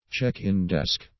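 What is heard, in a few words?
"check in desk", English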